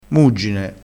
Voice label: "muggine", crespinese

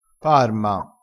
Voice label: "parma", crespinese

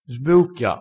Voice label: "sbeucchia", crespinese

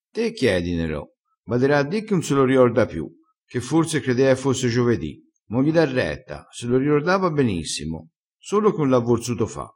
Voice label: "bada a di", crespinese

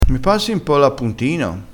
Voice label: "appuntino", crespinese

